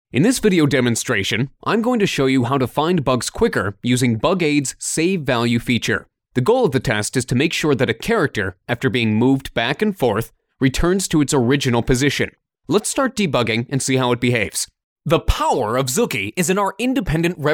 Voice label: Male American